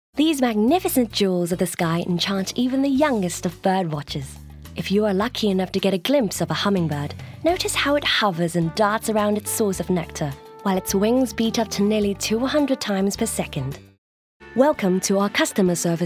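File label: British, narration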